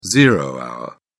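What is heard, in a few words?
"zero hour", British English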